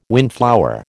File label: "windflower", American